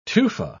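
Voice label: "tufa", British English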